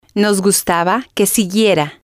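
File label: "nos gustaba que siguiera", spanish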